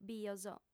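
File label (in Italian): "bios", lingua sarda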